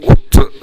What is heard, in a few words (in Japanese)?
"ottu", minami yamagata